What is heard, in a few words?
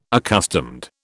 "accustomed", British